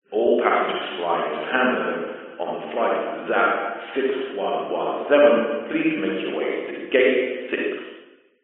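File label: public places airport announcement male english says all passengers flying to hamburg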